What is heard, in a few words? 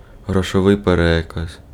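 Ukrainian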